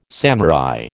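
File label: "samurai", American English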